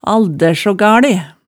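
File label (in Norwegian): "aldær-so-gale", Hemsedal dialect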